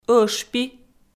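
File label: "ӧшпи", Komi, female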